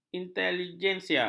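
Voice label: "inteligensia", Malay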